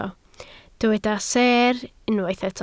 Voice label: "seer", Welsh

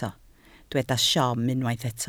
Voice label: "siom", Welsh

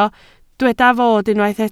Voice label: "fod", Welsh

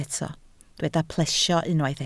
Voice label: "plesio", Welsh

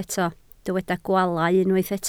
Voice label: "gwaalau", Welsh

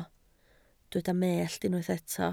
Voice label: "mellt", Welsh

